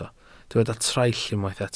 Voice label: "traill", Welsh

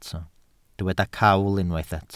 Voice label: "cawl", Welsh

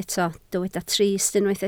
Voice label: "trist", Welsh

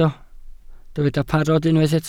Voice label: "parod", Welsh

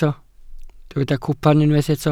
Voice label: "cwpan", Welsh

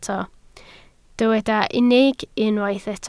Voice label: "unig", Welsh

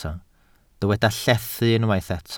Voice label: "llethu", Welsh

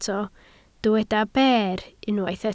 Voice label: "ber", Welsh

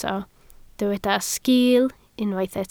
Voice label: "sgil", Welsh

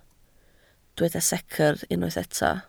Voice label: "secr", Welsh

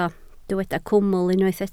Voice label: "cwmwl", Welsh

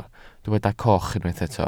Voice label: "coch", Welsh

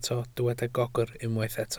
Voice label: "gogr", Welsh

